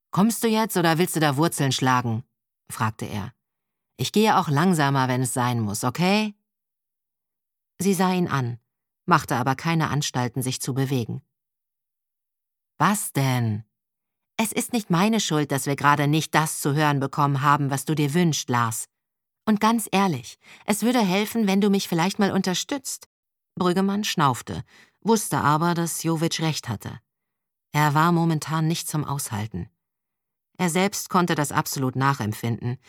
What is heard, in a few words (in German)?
Dialog; Seelentot